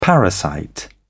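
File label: "parasite", British English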